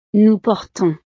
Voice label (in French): "nous portons", frances